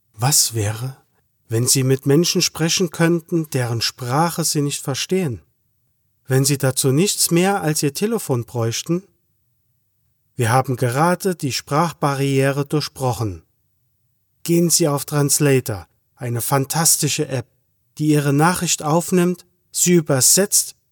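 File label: German, male, product video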